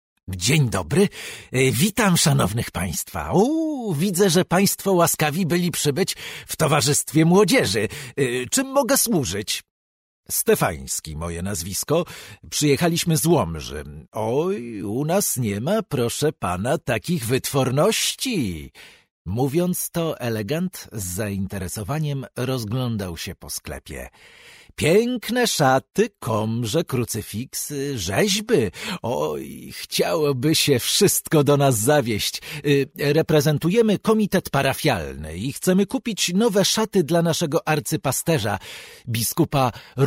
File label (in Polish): Lektor fragmenty prozy; Studio Nonagram